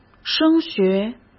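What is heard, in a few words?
"升学", Mandarin Chinese; hsk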